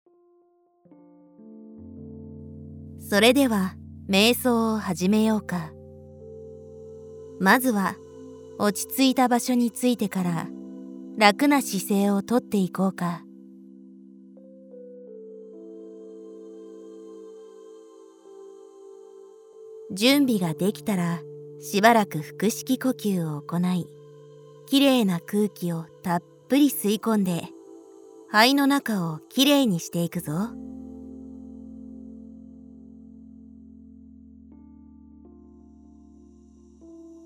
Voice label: 瞑想ショートワーク

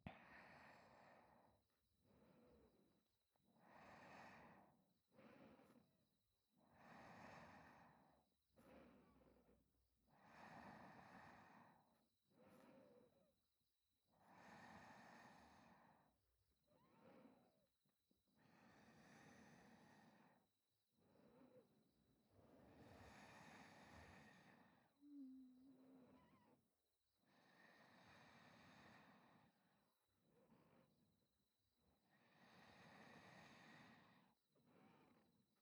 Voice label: 4人で添い寝, ASMR

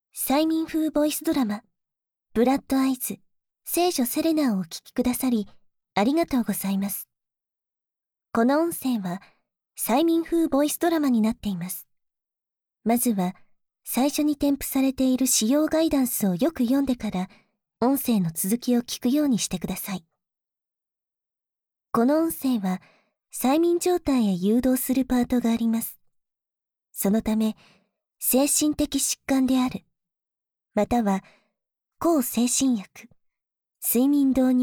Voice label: 催眠パート; ブラッドアイズ～聖女セレナ～

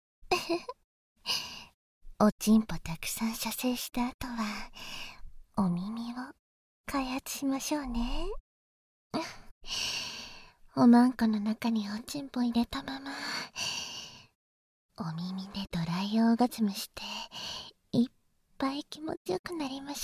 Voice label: お耳の開発（バイノーラルマイク収録 効果音なし）

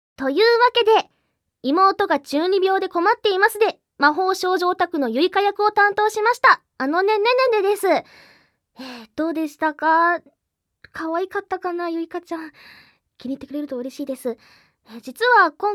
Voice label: フリートーク, ASMR

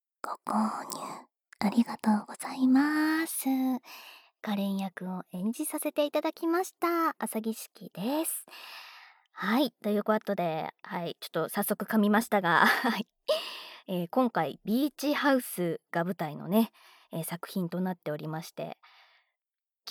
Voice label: フリートーク

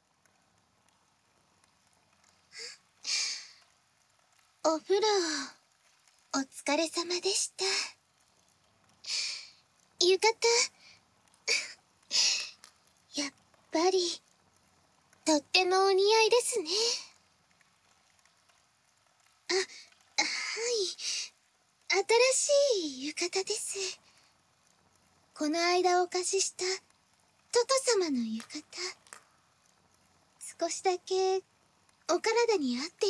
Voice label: 耳掃除（右耳）; 【入浴・耳かき】あやかし郷愁譚 ～洗濯狐 お紺・秋～【焼き芋・香油マッサージ】

